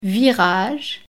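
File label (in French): "virage", French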